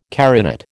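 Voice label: "carinate", American English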